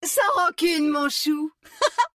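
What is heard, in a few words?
French; 回声（守望先锋2）; 终极技能; 法语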